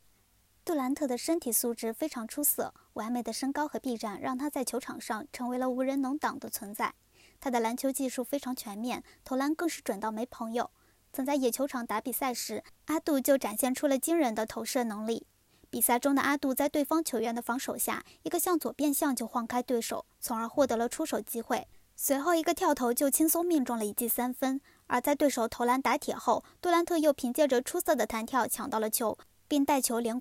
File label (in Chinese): 短视频解说